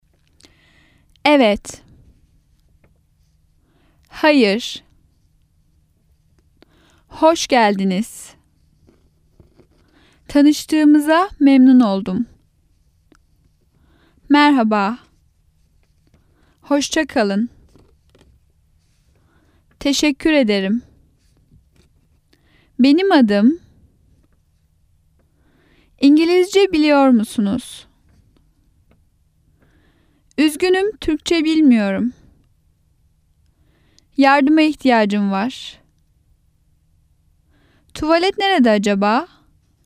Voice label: Turkish